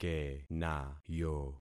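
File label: "kenayo", concatenated syllables; Affixing experiment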